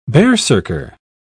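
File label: "berserker", British English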